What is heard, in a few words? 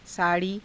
"saa_rii", Hindi